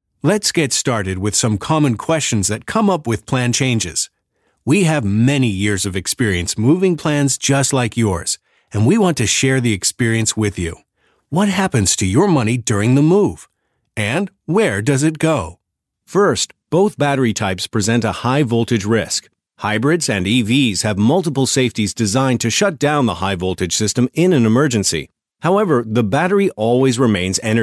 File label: eLearning Voice Over